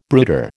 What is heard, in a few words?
"brooder", American